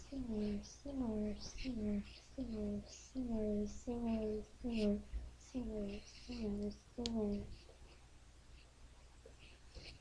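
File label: "singer", spoken word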